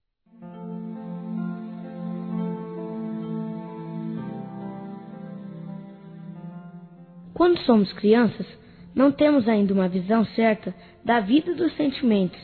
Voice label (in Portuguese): MADRINHA PADR M INFANTIL